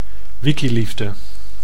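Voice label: "wikiliefde", Dutch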